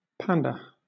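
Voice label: "panda", British English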